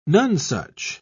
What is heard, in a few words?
"nonsuch", en uk